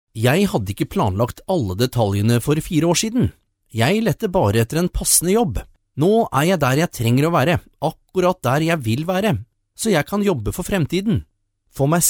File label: Norwegian voice over, Norwegian male voice talent; locutor noruego, norwegian voice over